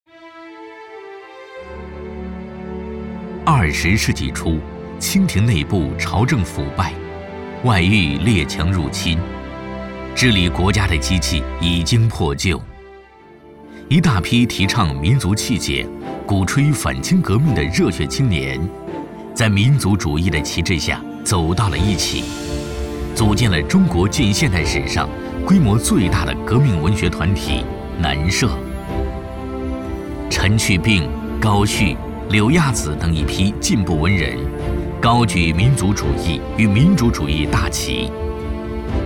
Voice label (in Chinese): 男 纪录片 人文风情馆